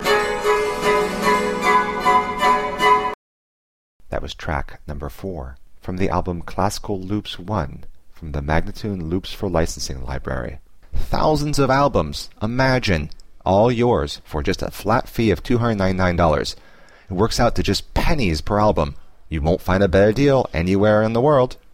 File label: american baroque spoken